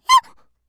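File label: "hya", Japanese; gaya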